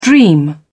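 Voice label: "dream", British English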